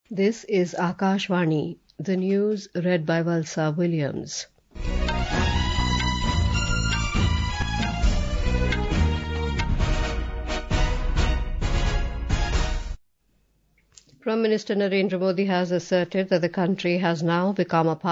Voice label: English; Rachael Haynes announces retirement from international cricket